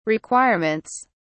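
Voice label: "requirements", British English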